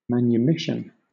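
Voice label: "manumission", British English; pronunciations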